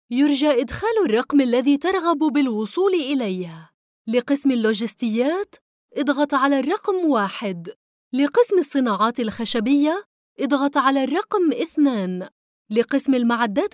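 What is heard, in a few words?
Arabic, Saudi Arabia, female; voice over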